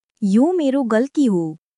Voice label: Nepali, basic phrases